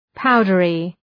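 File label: "powdery", English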